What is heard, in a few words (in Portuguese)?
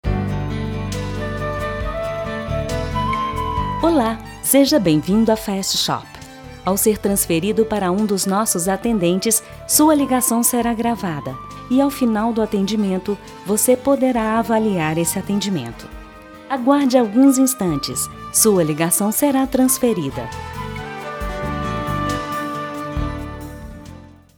espera neutro; fastshop